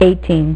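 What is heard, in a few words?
"eighteen", female; MacCribbage; InterStellar MacCribbage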